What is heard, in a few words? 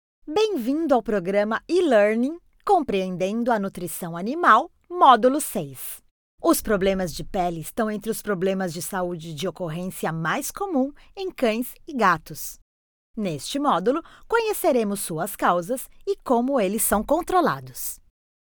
brazilian f learning, portuguese bra voice